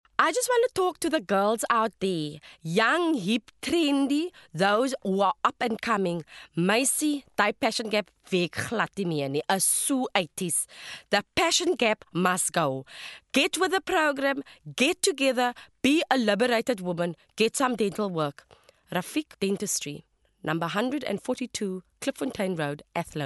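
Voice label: english South African female commercial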